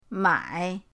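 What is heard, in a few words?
"mai", Mandarin pinyin, third tone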